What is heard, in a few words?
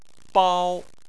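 "bao" Chinese, first tone; Chinese Surnames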